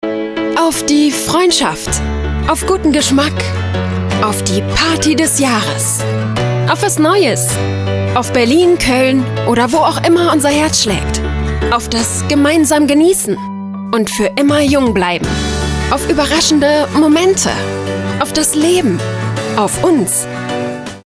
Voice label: COMMERCIAL Likoer